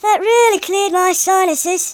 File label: dragon punch, speech, Hippy